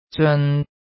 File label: "zeon3", cantonese